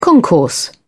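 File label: "concourse", British English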